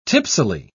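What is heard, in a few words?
"tipsily", British English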